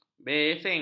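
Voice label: "besing", Malay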